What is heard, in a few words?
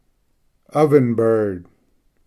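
"ovenbird", English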